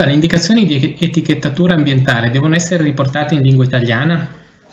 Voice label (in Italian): Lingua italiana